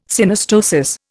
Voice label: "Synostosis", Indian